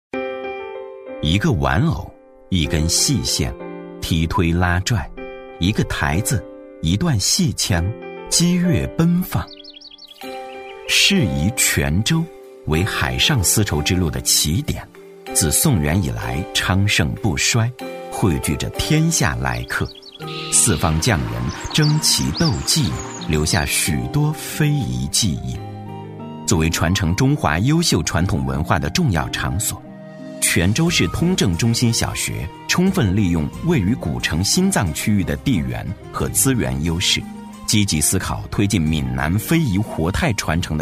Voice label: 男 纪录片 古色古香悠扬; 闽南非遗传承; 男83-纪录片《闽南非遗传承》-古色古香悠扬

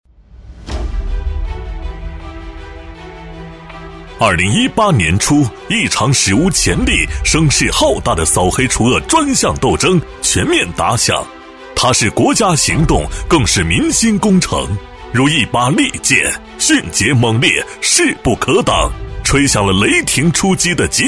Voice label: 男 公安专题 力度大气; 电视台声; 大气激昂

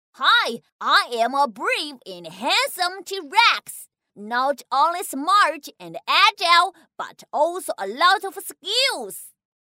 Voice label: 女 男童 英文

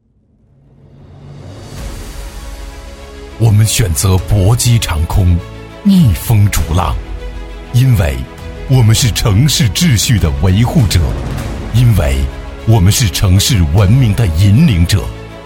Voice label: 男 公安宣传 硬朗厚重; 南康公安 鹰击长空

